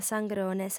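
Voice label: "s'angrone", female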